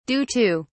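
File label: "due to", British English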